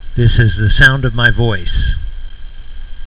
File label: voice Echo